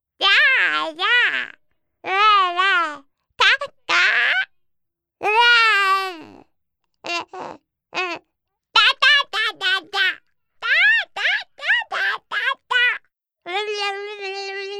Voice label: Baby